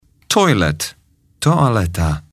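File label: "toilet", English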